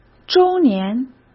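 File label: "周年", Mandarin Chinese; chinese-flashcards; hsk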